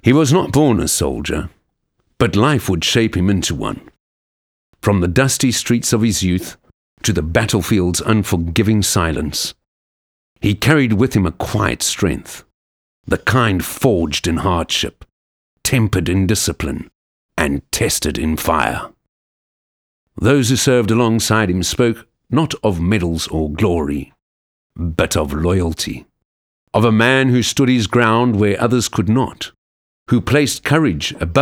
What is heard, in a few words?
Audio Book Bio